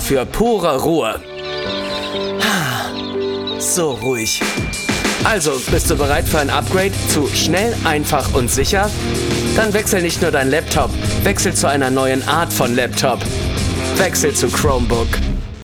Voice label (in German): WERBUNG Chromebooks; LOFT VOICES